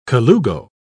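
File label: "colugo", British English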